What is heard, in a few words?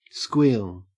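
"squeal", Australian English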